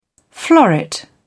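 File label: "floret", British English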